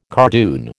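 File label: "cardoon", American English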